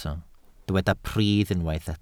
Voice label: "pridd" Welsh

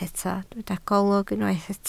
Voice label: "golwg", Welsh

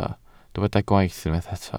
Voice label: "gwaith" Welsh

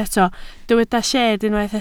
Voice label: "lled", Welsh

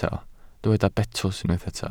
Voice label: "betws", Welsh

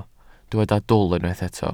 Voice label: "dwl", Welsh

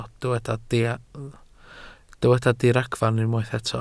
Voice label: "diragfarn" Welsh

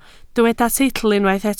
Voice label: "teitl", Welsh

